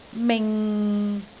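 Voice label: "ming6", Cantonese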